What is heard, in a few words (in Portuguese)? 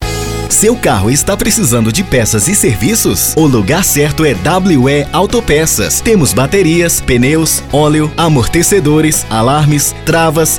VOZ IMPACTO GRAVE vinheta spot chamada comercial propaganda; vinheta para loja de autopecas; anuncio